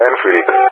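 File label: "Enfield", English speech; CallSign; Allied Flight; DCS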